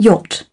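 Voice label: "yacht", British English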